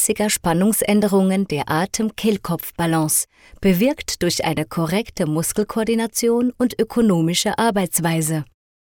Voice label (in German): Kommentar Vibrato; SPRECHERIN